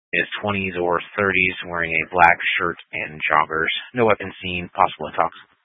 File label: Sheriff Dispatch, scanner; Mesa County